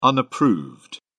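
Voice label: "unapproved", British English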